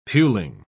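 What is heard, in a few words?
"puling", British English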